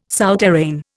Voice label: "souterrain", Indian